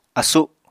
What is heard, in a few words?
"asu", Apatani